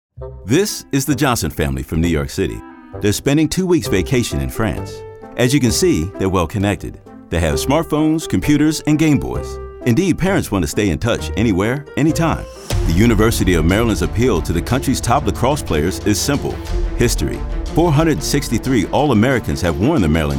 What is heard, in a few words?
narration